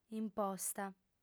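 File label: "imposta", female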